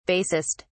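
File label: "basest", British English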